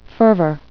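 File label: "fervor", English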